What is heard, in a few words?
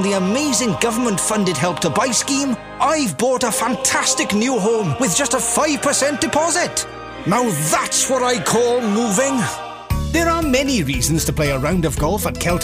commercial welsh accent